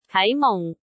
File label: "kai2 mung4", cantonese